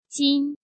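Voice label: "Jīn", Chinese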